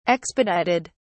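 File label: "expedited", British English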